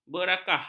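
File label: "berakah", Malay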